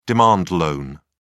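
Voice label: "demand loan", British English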